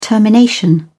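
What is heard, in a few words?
"termination", British English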